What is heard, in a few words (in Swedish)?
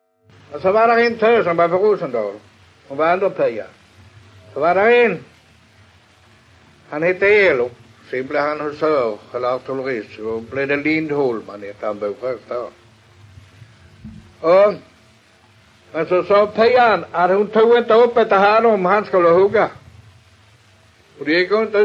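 Allerum Skåne, Svenska sagor dialekter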